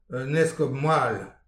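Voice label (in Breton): "un eskob moal", Breton; petit precis de prononciation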